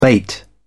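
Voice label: "bait", British English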